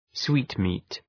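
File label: "sweetmeat", English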